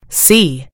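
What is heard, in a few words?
"see", British English; gcompris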